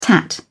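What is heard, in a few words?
"tat", British English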